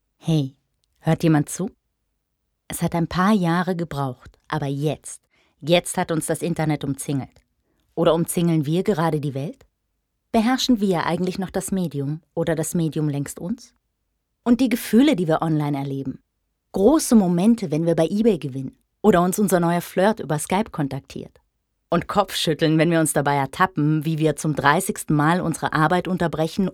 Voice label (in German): audio offsprecher